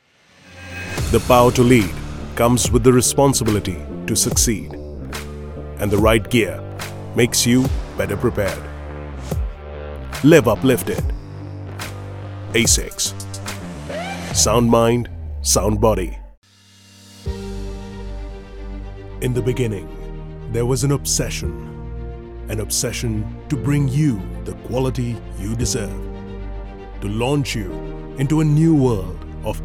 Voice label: English Showreel